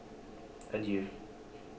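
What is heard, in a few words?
"adieu", Canadian, male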